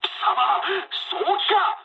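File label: player killed ally mood med, speech japanese; War Thunder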